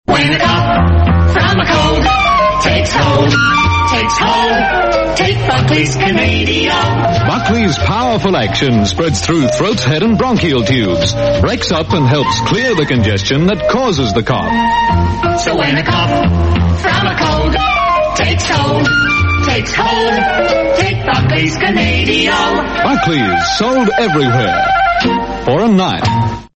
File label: australian otr ads; buckleys canadiol